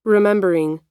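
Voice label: "remembering", US English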